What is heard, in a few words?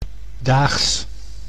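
"daags", Dutch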